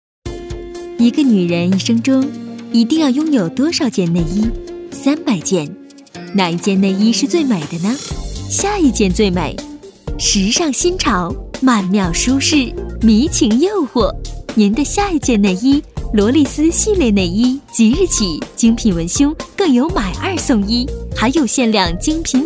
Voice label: 女 广告 服装 内衣 甜美; 罗丽丝系列

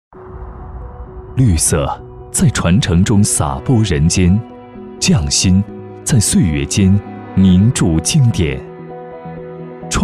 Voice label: 男国 专题 企业 稳重; 园林公司

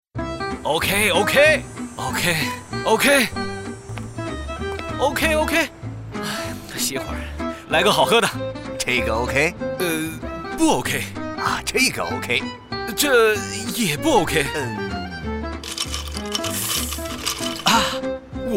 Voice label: 广告 食品饮料 活力; 可口可乐

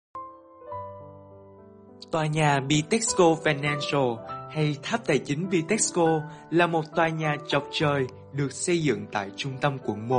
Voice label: 越南语男 抒情; 外语; 小语种

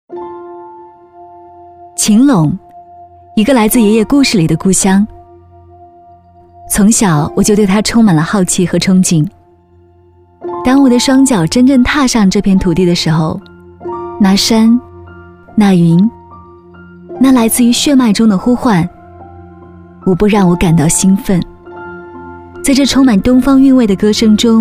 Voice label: 宣传片 旅游 风景 知性; 晴隆

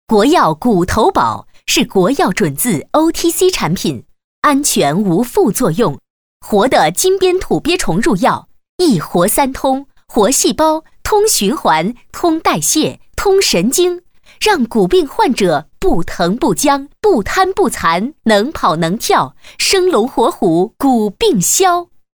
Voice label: 广告 医院医药 药物 力度

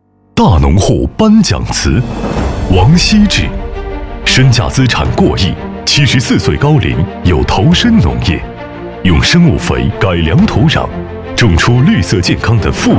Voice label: 男国 专题 颁奖

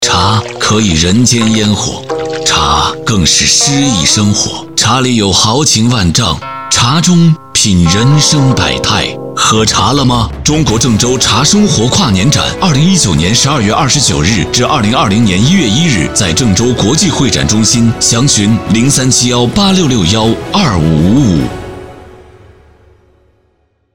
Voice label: 男国 广告 食品饮料 茶 成熟

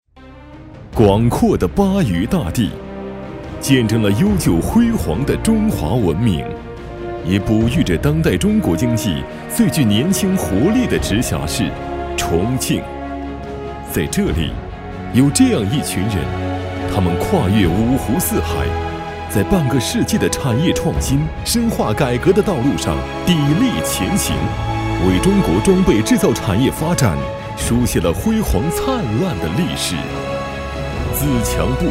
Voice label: 男国 专题 汇报 亲切; 重庆公司

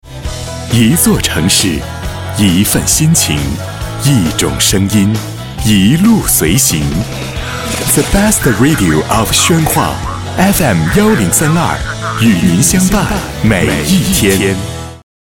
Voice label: 广告 片头片花 年轻, 男国; 宣化

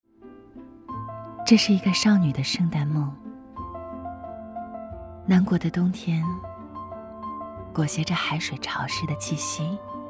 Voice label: 微电影 少女

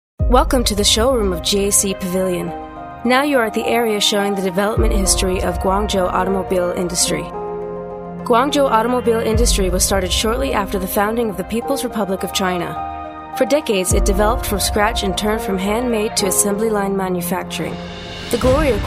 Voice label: 外籍女英 外语 美式英语 专题感觉 时尚

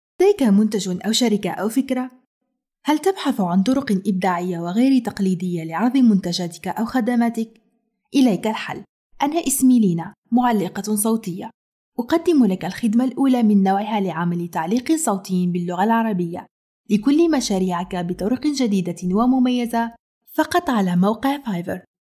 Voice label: 阿拉伯语女 外语 小语种

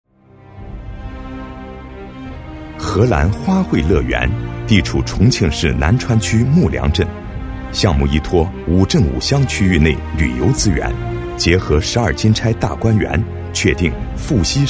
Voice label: 专题 政府 讲述, 男国; 荷兰

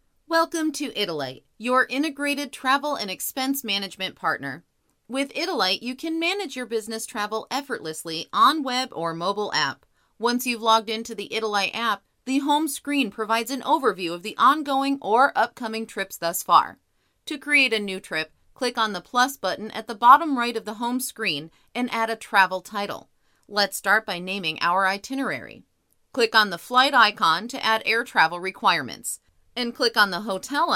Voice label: 外籍女英 外语 美式英语 样音

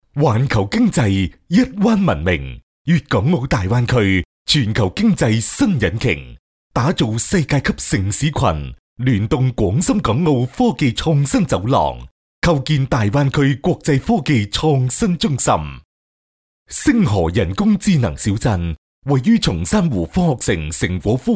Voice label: 男粤 广告 地产; 星河小镇

